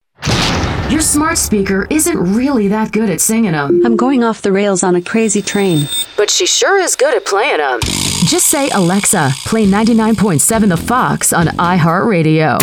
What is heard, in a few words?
IMAGING CLASSIC ROCK